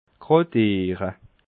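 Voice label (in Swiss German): "gradiere", Alsatian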